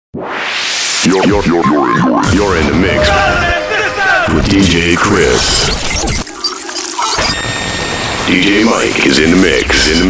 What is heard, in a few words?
Male Voice